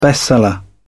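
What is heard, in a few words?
"bestseller", British English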